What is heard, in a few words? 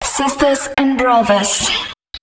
female, spoken hooks; Mutekki Media; Dance Ultra Voice VOL-1